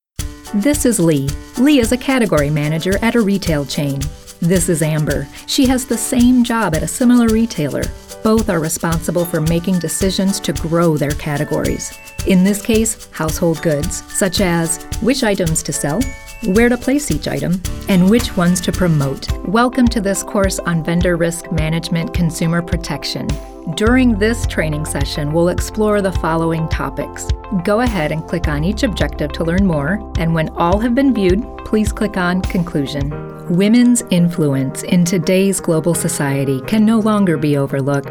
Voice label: narration